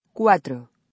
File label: "cuatro", American Spanish